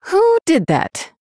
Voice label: Female Human Oops